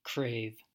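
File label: "crave", American English; pronunciations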